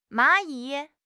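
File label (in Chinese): "蚂蚁", Chinese; HSK6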